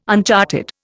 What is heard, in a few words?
"uncharted", Indian